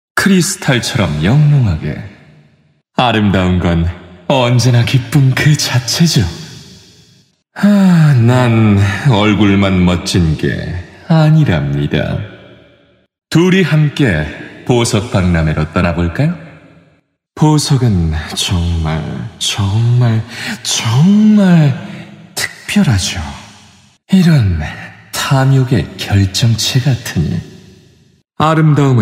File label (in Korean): 캐릭터 차분한 세련된 20대 30대; 리그오브레전드; 타릭